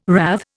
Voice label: "rathe", Indian